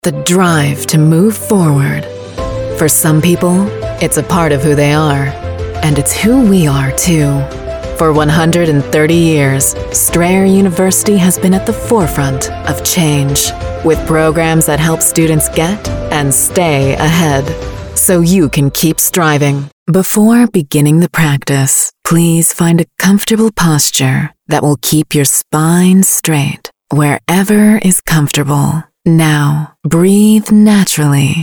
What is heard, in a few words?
ENG